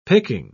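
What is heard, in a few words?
"picking", spoken word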